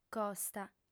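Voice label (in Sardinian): "costa", female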